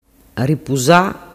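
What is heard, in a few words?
"ripusa", Sicilian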